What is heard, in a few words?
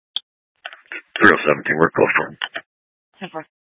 Scottsdale Police North Dispatch; Regional Wireless Cooperative (RWC) - Thompson Peak High